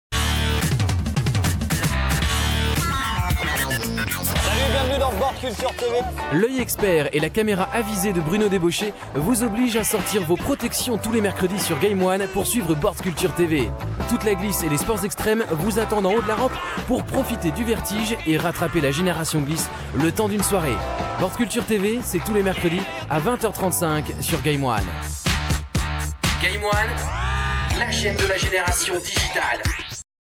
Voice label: promo; game1; gameone; boardkultur tv